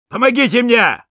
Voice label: help me, ru

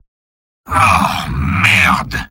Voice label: jeers; Spy; mvm

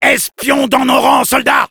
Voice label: cloaked spy, fr

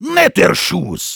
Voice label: nice shot, de; Heavy

